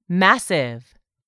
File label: "MASSIVE", english; 3000 words